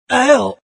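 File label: pain, Chinese; Invo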